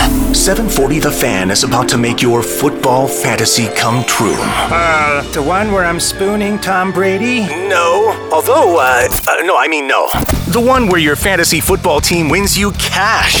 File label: radio imaging men sports